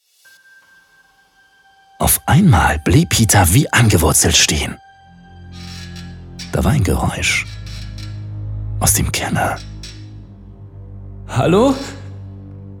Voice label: Audio Book